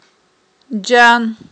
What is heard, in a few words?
"джан", Aghul